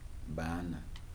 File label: "children", Kibembe